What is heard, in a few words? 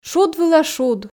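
шуд вылӧ шуд, Komi, female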